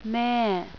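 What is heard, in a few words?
"mother", male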